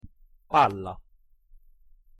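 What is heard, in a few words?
"palla", pronunciation, Italian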